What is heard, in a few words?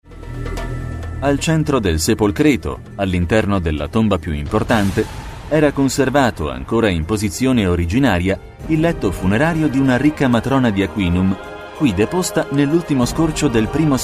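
it documentary, Italian, male; italiensk; mann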